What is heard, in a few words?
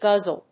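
"guzzle", English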